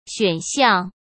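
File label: "选项", Mandarin Chinese; chinese flashcards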